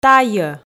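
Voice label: "тайӧ", Komi, female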